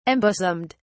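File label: "embosomed", British English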